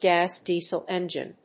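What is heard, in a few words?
"gas-diesel engine", English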